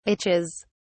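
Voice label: "itches", British English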